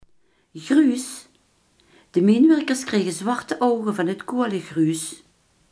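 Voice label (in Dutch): gruis, Limburgse gesproken woordenboeken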